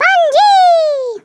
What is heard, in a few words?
"bungee", Swedish